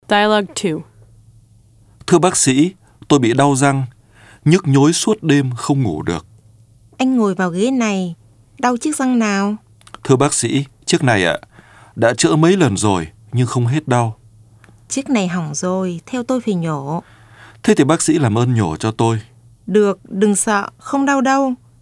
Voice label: Dialog